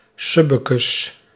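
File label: "subbekes", Dutch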